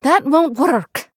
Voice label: Female Murloc Error; en